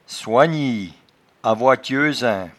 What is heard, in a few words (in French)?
"soingnie", patois jura